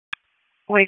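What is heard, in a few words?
Police Dispatch; Wake Forest; p25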